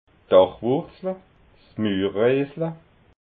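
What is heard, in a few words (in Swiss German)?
"dachwurzla", Alsatian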